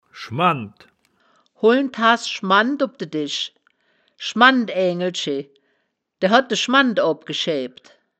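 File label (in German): "schmand", wittlicher woerterbuch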